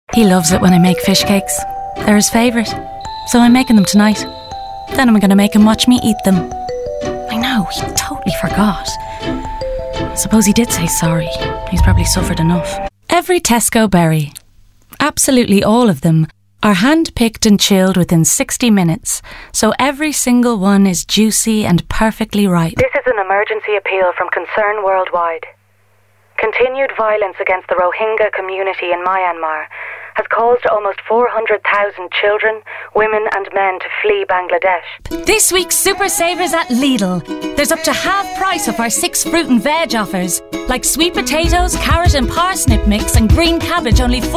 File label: commercial reel